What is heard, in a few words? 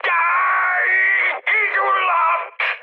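Hungarian on fire mood high, speech; War Thunder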